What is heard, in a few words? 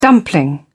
dumpling, uk